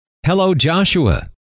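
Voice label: "hello", male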